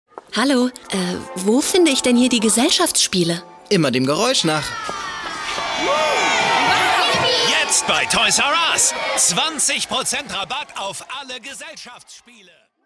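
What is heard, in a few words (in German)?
Schauspiel Freundlich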